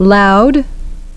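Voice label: "loud", English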